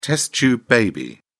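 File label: "test tube baby", English, British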